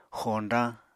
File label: "xoñdañ", Apatani